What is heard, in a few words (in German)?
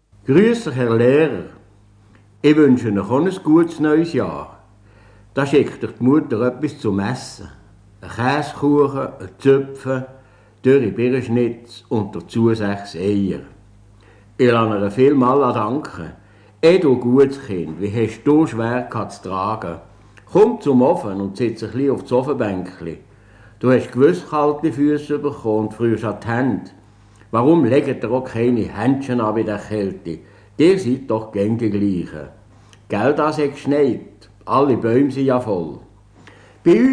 Bern BE